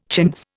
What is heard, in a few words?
"chintz", Indian